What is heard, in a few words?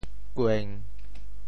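"kueng1", Teochew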